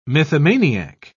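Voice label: "mythomaniac", British English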